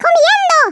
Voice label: "come on then", Swedish